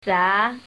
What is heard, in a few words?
shanghainese numbers